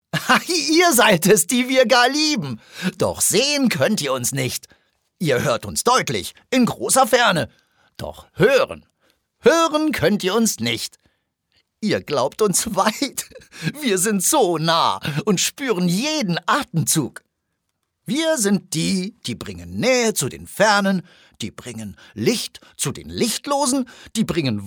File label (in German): froehlich, maenner